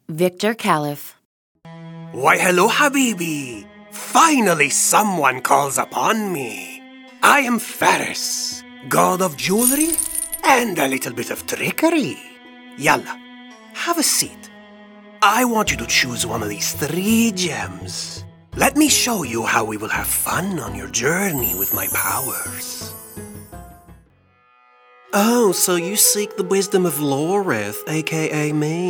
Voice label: male english canadian french canadian and lebanese arabic multiple accents